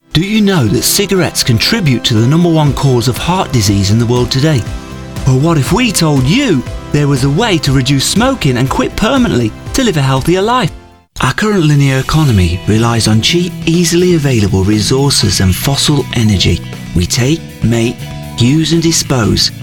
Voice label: advert commercial